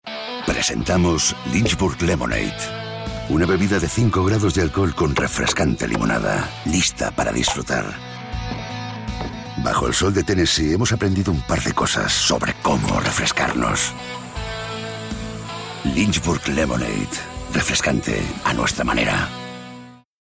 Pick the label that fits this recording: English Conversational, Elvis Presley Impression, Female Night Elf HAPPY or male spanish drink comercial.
male spanish drink comercial